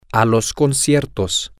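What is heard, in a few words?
concerts, Spanish, male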